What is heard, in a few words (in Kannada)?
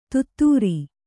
"ಧೋತ್ರ", Kannada